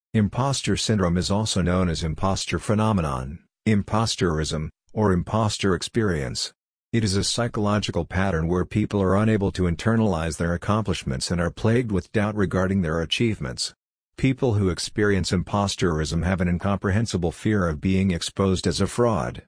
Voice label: Male Voice; Impostor Syndrome; PLR Audio Articles